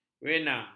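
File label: "vena", Malay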